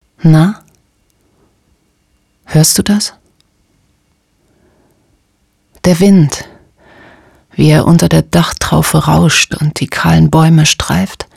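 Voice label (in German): Hörbuch Halloween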